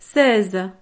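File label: "seize", French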